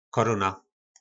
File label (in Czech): "Koróna", nářečí Po našimu; Koruna